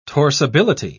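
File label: "torsibility", English, British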